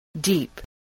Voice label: "deep", English

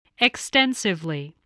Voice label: "extensively", voice

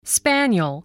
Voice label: "spaniel", English voice

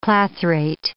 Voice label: "clathrate", English

